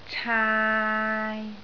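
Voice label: "caai3", Cantonese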